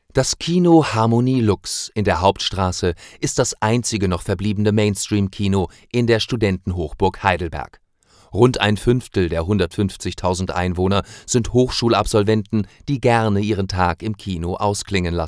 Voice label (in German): german documentary